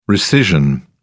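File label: "rescission", British English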